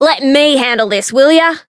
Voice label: Voice variable chant; kid